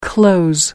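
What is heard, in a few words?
"close", English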